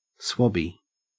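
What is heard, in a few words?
"swabby", Australian English; pronunciations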